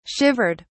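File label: "shivered", British English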